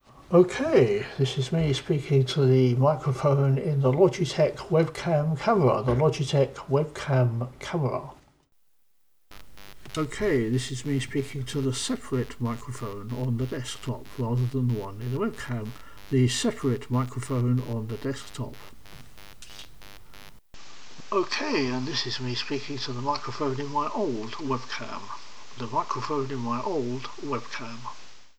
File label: Mics test